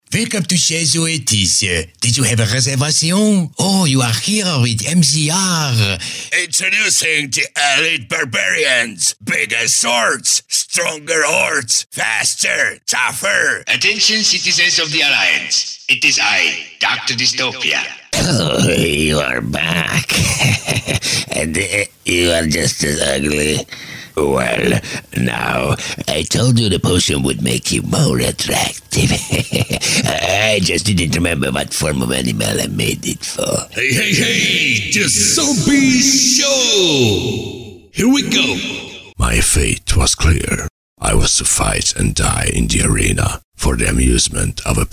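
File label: english games character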